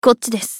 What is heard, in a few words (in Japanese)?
スカウト女 移動